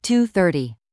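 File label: "two thirty", English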